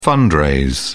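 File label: "fundraise", British English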